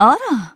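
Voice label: "ara", Japanese; kyrie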